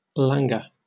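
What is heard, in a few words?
"languor", British English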